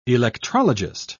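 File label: "electrologist", British English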